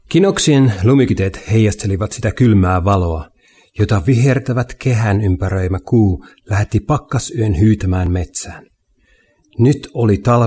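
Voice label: Finnish; Finnish, Scandinavian, Male, 20s-40s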